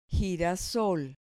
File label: "girasol", Spanish